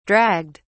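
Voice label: "dragged", British English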